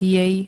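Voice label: "jej", Slovak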